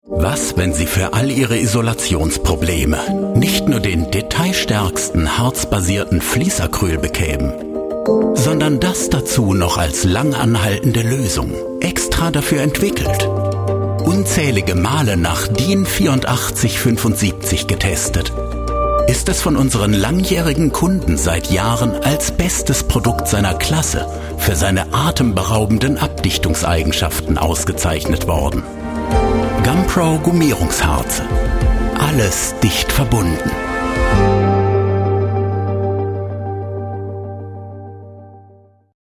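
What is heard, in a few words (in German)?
Produktvideo erklärend Deutsch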